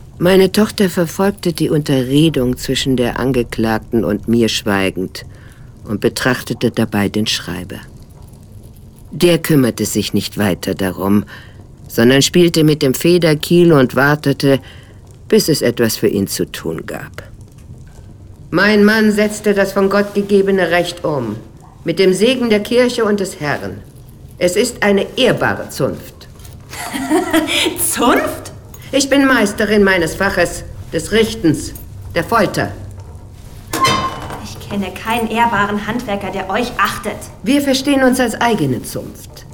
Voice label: Hörspiel, frauen; sprecher; DieMeisterin

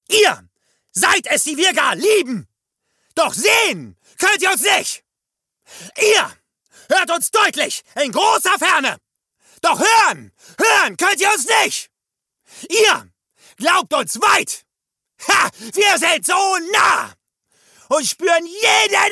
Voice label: aggressiv, maenner

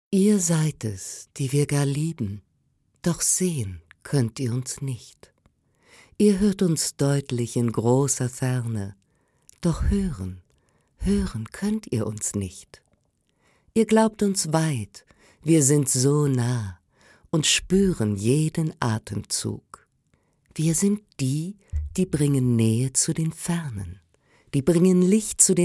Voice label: poetisch, frauen; sprecher

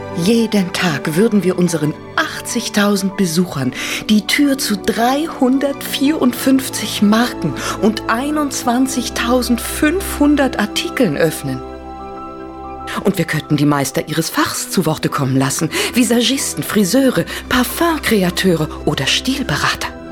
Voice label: Kommentar, frauen

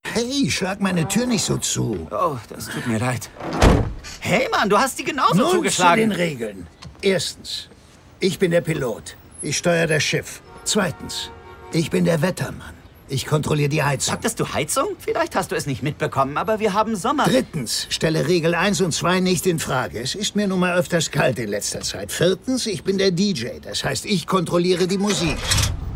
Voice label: Synchron, maenner; Uncle Drew

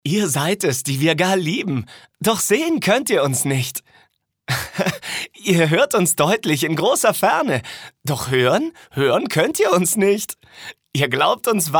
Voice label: froehlich, maenner

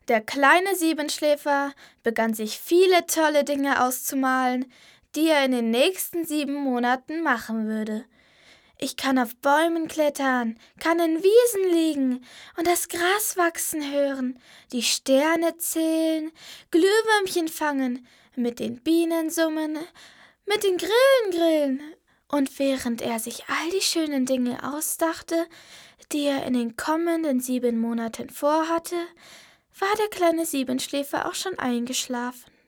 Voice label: maedchen; Der Siebenschläfer

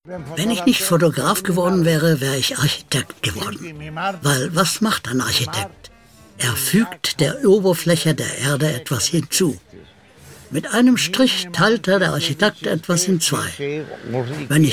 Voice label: Voice Over, maenner; Ara Güler

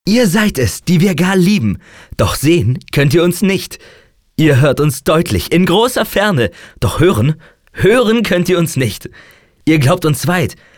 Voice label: fröhlich, maenner

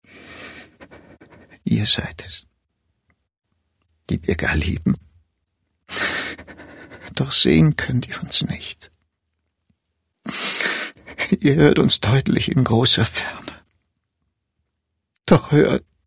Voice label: traurig, maenner

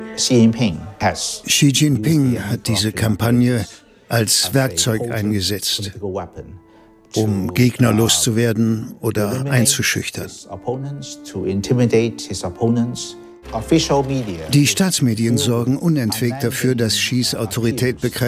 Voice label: Voice Over, maenner; China der entfesselte Riese

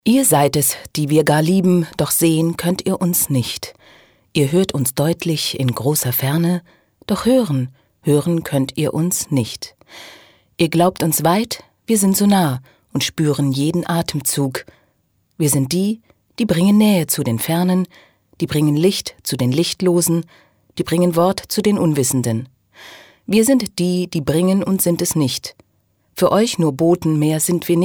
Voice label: sachlich, frauen

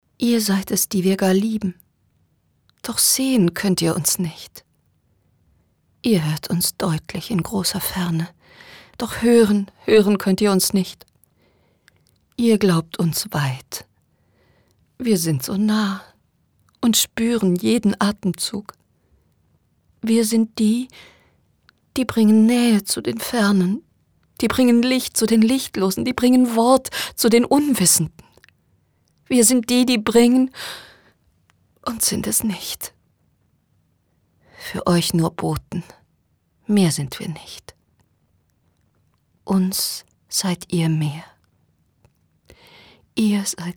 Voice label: traurig, frauen

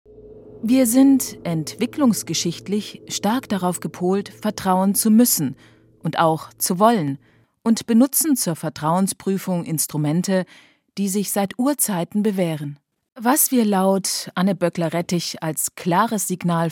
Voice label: Hörbuch, frauen; BR2; Eine Verlustanzeige

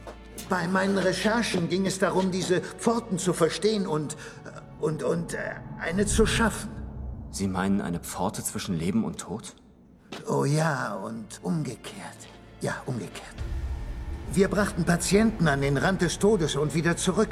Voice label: Synchron, maenner; I still see you

